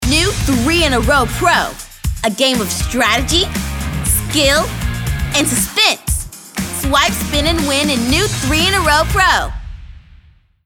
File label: perky delivery